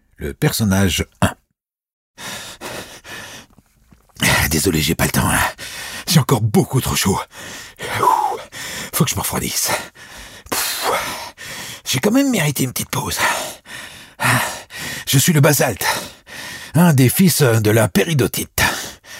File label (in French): Livre audio; hoerbuch; 4 PERSONNAGES